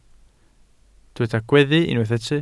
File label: "gweddu", Welsh